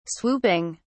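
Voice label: "swooping", British English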